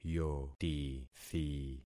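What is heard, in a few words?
"yotithi", concatenated syllables; Affixing experiment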